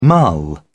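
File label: "mull", British English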